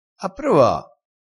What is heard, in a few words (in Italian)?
"a prova", Crespinese